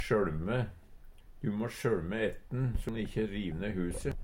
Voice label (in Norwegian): skjøLme, Numedalsmål; Verb; Du må skjøLme ettn, so`n ikkje riv ne huset.